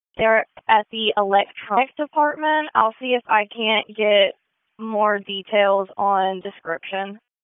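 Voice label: Police Dispatch; Raleigh; Southwest District; wake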